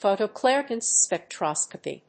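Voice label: "Photoelectron spectroscopy", English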